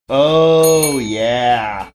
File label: drawn out oh yeah